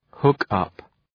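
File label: "hook up", English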